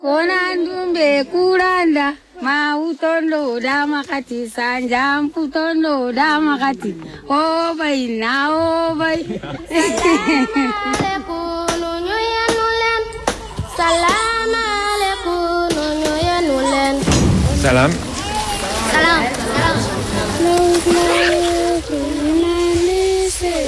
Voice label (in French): Voix d ailleurs enfants